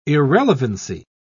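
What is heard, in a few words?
"irrelevancy", British English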